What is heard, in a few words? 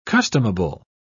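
"customable", British English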